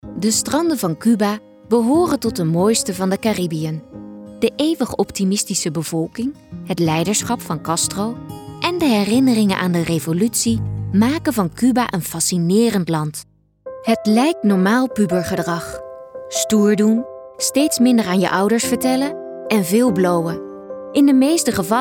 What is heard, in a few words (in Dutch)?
demo narratief; 14voices